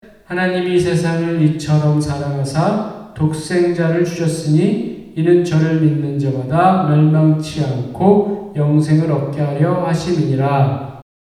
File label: Speaking No Treatment; 뉴욕새교회